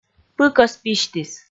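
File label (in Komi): "пыкӧс письтіс", Komi, female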